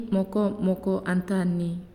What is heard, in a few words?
"Round", Naasioi